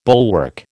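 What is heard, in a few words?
"bulwark", American English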